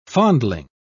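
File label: "fondling", British English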